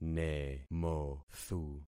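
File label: "nemothu", concatenated syllables; Affixing experiment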